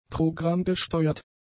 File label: "programmgesteuert", German; adjectives